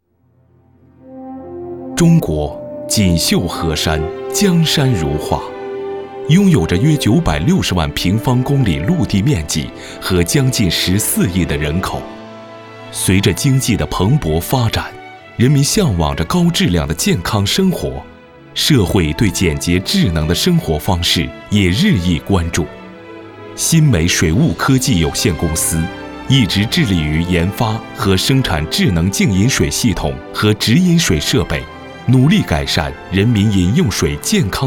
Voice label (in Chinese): 男, 宣传片 水务科技; 欣美水务科技